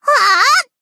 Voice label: Battle Shout; Kotori

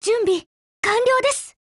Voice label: ATTACK JP; CAR

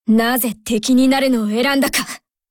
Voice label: warcry

